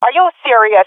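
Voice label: taking fire friendly, swat female; Counter Strike Model Pack; CS:GO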